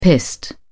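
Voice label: "pissed", British English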